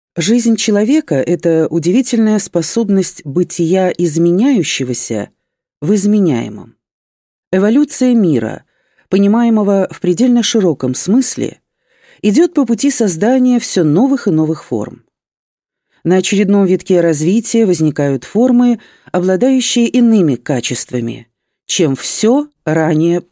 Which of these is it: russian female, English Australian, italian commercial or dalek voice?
russian female